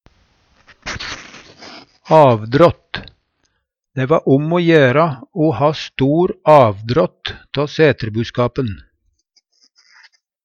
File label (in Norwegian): "avdrått", Numedalsmål; Hushald, mat, drikke